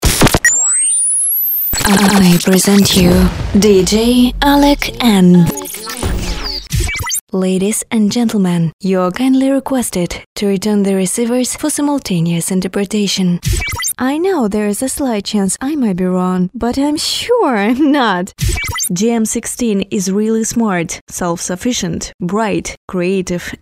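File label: english lang anglijskij, English language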